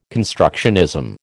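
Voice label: "constructionism", American English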